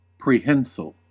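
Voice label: "prehensile", US English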